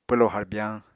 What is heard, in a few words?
"pwlo harbyañ", Apatani